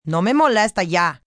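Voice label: Dont Bother Me Spanish, distress, help, female, Spanish; Calls; Exclamation Sound Effects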